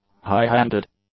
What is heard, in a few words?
"highhanded", English